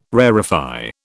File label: "rarefy", British